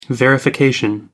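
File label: "verification", British English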